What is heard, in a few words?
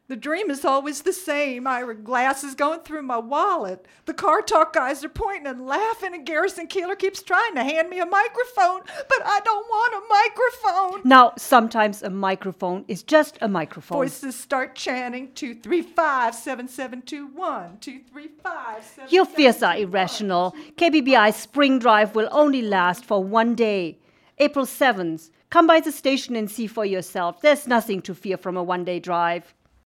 promo; KBBI